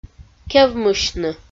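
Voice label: "кевмысьны", Komi, female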